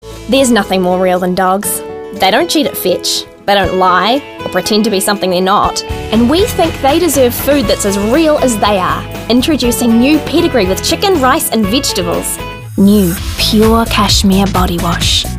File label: Brit New Zeal Irish Aussie Accents; VO Demo